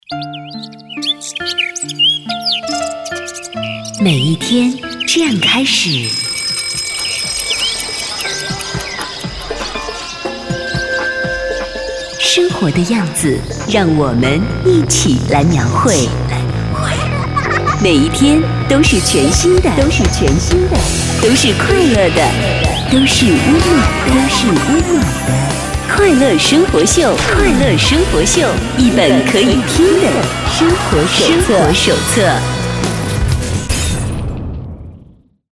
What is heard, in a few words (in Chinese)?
女 片头; 快乐生活秀